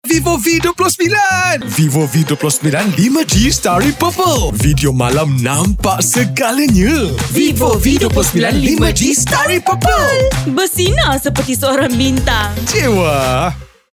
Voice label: Malay, Commercial Over The Top, Energetic, Friendly; BM : VIVO V29 Commercial Over The Top, Energetic, Friendly